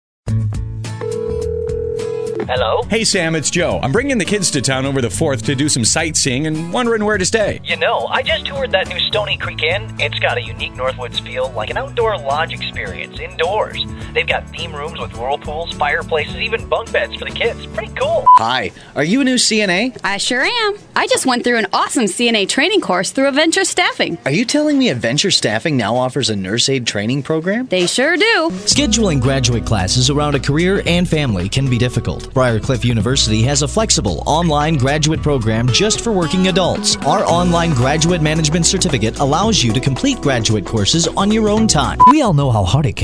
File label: english american commercial